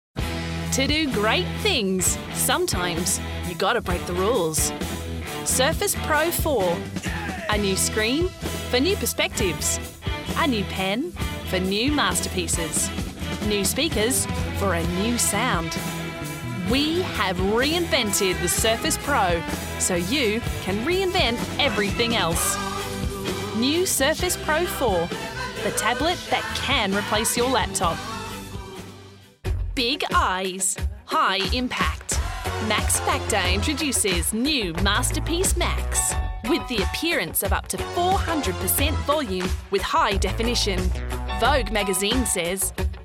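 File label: Australian English, Commercial; GoLocalise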